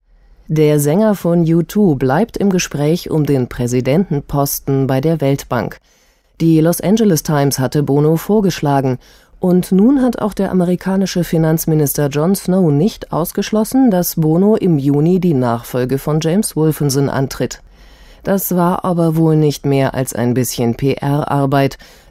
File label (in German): kulturnachrichten